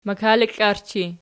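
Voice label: "makaliklarchi" nominative, Archi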